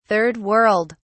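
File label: "Third World", British English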